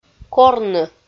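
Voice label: "корны" Komi, female; audio dict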